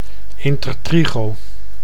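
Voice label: "intertrigo", Dutch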